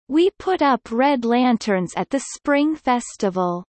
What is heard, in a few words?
Kelly low; spring festival